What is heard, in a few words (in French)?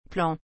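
"plan", French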